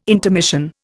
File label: "intermission", Indian